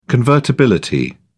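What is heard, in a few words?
"convertibility", British English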